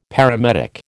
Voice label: "paramedic", American